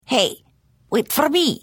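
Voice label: Follow, Khajiit female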